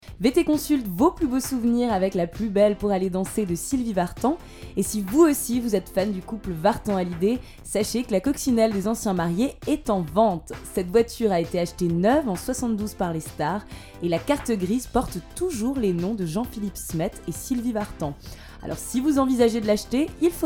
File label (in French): adulte; VT Consult